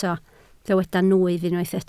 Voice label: "nwyf", Welsh